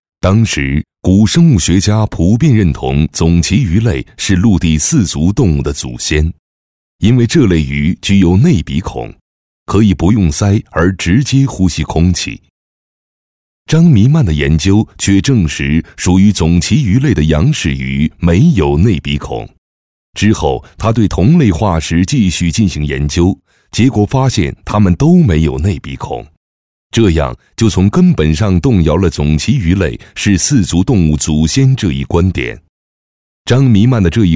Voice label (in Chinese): 男 栏目解说; 张弥曼